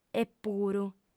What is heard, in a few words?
"epuru", audiofem